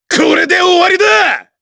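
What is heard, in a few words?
Vox Skill Japanese; Chase; kingsraid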